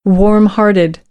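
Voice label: "warmhearted", American, English; AmericanBritish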